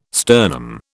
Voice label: "sternum", British